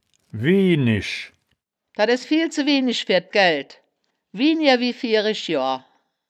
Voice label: "wienisch", wittlich

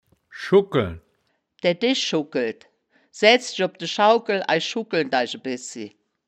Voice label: "schugkeln", wittlich

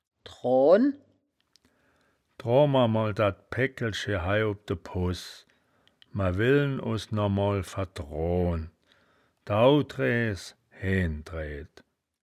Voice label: "droan", woerterbuch, Wittlich

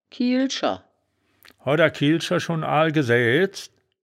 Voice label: "kiehl scher", wittlich

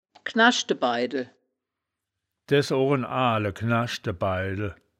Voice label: "gnaschde baidel", wittlich; das wittlicher woerterbuch; woerterbuch vertonung

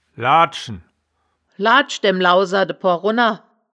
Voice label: "laadschen", wittlich; das wittlicher woerterbuch; woerterbuch vertonung

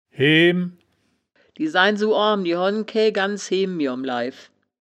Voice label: "heehm", wittlich

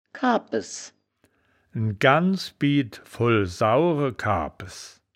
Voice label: "kaabpes", wittlich

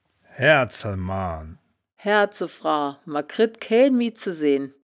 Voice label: "haerzel mann", wittlich

